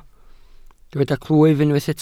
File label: "clwyf", Welsh